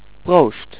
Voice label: CHEERS, dutch